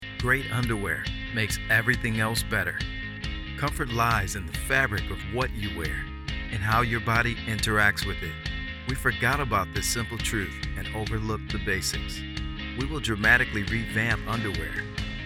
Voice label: narration